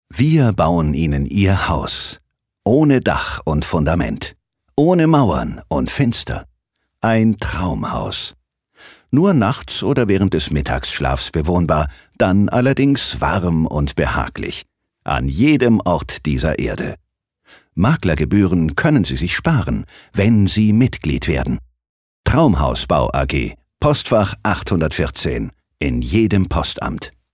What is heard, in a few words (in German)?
Werbung Neutral